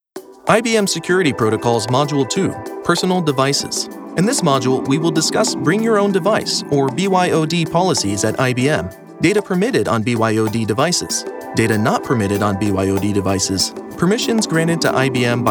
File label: eLearning; IBM